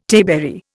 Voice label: "tayberry", Indian

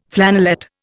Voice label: "flannelette", Indian

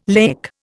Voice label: "laic", Indian

